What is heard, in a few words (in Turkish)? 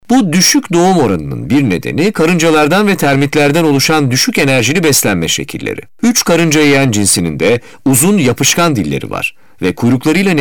erkek